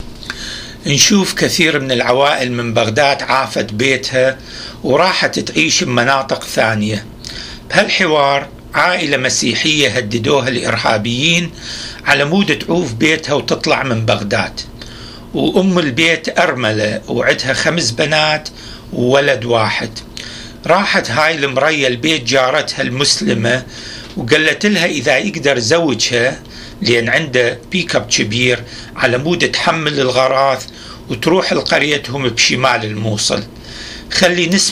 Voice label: Dialogue about forced migration, Iraqi Dialect